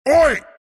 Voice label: pain; bristleback